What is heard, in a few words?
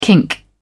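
"kink", British English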